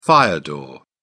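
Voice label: "fire door", British English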